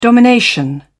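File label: "domination", British English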